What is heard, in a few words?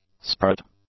"sprat", english; nouns